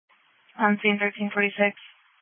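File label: Police Patrol Dispatch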